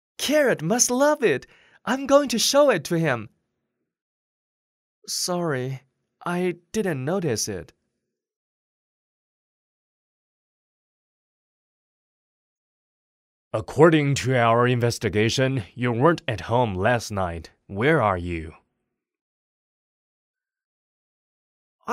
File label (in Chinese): 男 英文 角色; 秋季G8L8故事